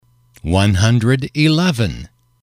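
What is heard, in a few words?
"one hundred eleven", English, male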